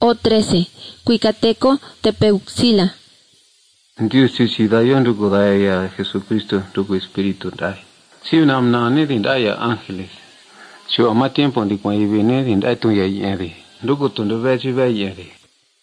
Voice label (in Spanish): spanish mexico